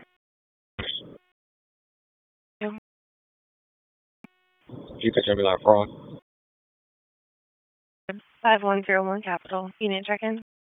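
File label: Police Northeast District Dispatch, p25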